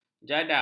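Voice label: "jadam", Malay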